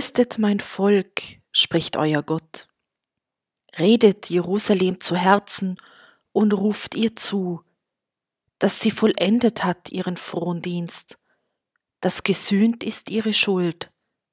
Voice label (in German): Lesung; mit heiligem geist getauft